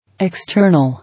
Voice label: "External", English

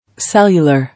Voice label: "Cellular", English

